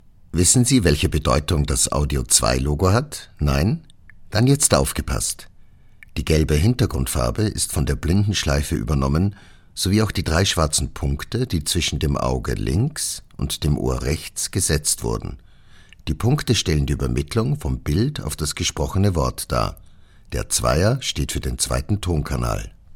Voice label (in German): Oesterreichisches Deutsch, sprechproben